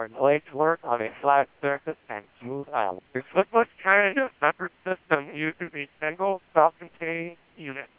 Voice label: Codan digital voice